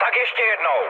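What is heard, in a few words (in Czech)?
Czech bomb failure, mood low; speech; War Thunder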